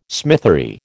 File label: "smithery", American